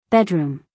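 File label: "bedroom", American English, female; 1000 hours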